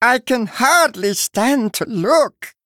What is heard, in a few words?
Male Murloc; Finley